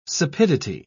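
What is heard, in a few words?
"sapidity", British English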